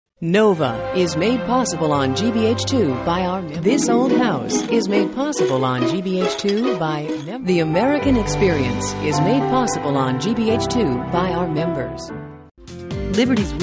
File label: Commercial Demo